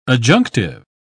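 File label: "adjunctive", British English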